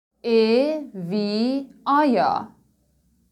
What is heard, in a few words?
german alphabet